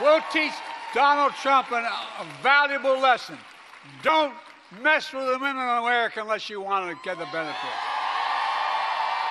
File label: PRESIDENT BIDEN GIBBERISH